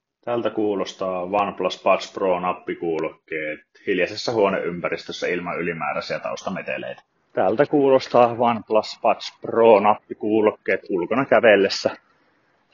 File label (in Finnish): mikrofoniesimerkit; OnePlus Buds Pro